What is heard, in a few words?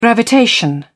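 "gravitation", British English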